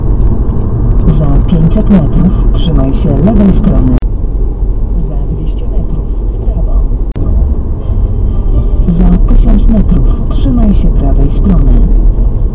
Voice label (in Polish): Test glosu radio wyciszenie; deezer